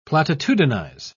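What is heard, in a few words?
"platitudinize", British English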